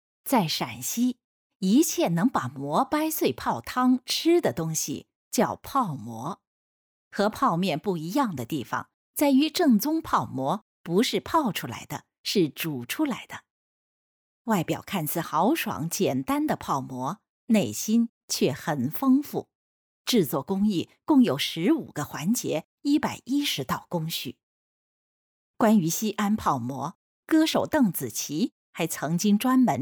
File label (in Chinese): 纪录片解说; 西安泡馍